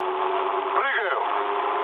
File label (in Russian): bailout, mood low, speech ru; War Thunder